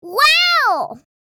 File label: "Wow" surprise, girl child kid